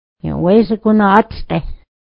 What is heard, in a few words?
is it nice outside, mohawk